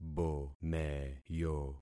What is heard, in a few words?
"bomeyo", concat syllables; Affixing experiment